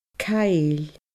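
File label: "caill", Scottish Gaelic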